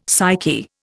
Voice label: "psyche", Indian; Dictionary